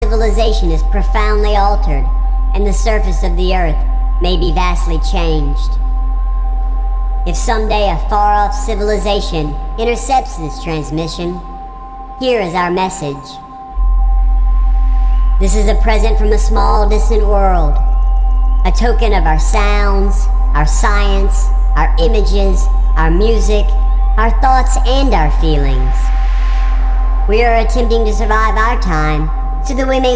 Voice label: Narration